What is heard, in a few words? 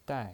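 "dai", Mandarin Chinese, fourth tone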